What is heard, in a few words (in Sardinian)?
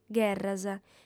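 "gherras", female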